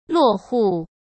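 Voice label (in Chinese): "落户", Mandarin Chinese; hsk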